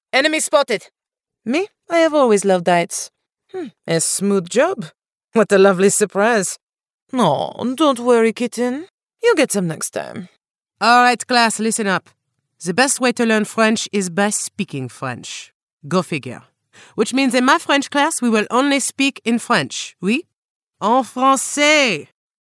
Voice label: French Accent